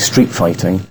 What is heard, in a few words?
LoFi Words, LoFi Vocals